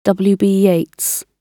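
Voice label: "w b yeats", British English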